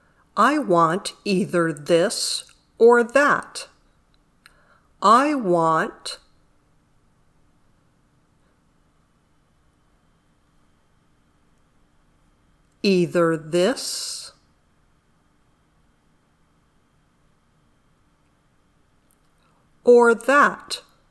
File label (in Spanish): Dictado